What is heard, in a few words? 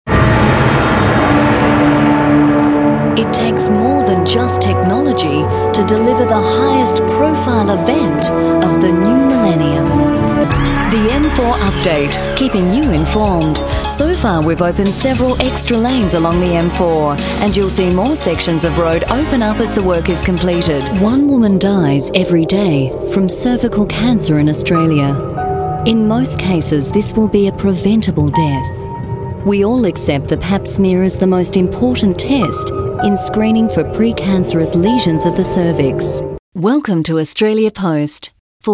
corporate